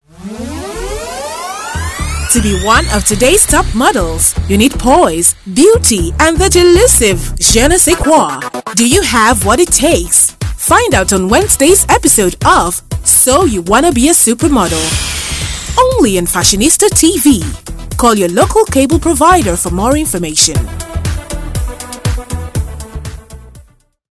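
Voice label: African English Commercial; GoLocalise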